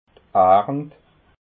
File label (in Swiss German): "arnt", alsace